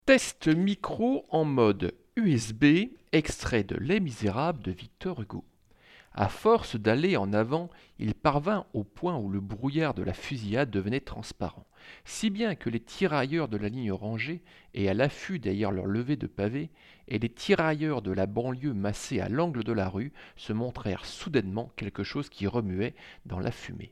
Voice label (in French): Test Micro Virtuoso RGB Wireless XT USB